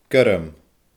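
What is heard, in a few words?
"gorm", Scottish Gaelic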